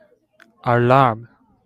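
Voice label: "alarm", JPN, male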